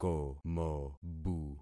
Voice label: "komobu", concat syllables; Affixing experiment